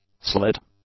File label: "slit", english; nouns